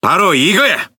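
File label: Speech Quick Commands Good Game, Korean; World Of Warships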